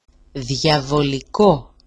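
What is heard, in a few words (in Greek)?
"diavoliko", Greek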